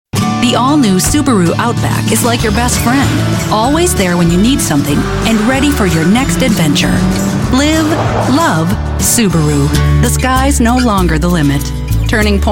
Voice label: Energetic Ad